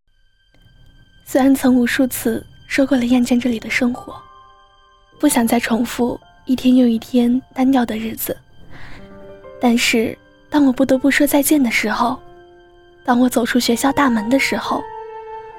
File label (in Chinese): 女 伤感